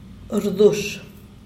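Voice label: "rdush", ladra, Zapotec, Díaz Ordaz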